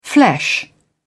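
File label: "flesh", British English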